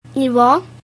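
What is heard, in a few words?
level, Basque; gcompris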